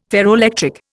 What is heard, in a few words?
"ferroelectric", Indian